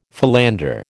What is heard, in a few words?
"philander", American